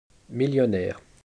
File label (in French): Chute consonnes millionaire; Neuchâtel; “millionnaire” prononcé [mijɔnɛʁ]; II. Le système consonantique